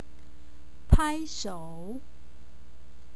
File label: Phrase Clap Hands; C2: clap hands